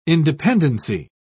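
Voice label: "independency", British English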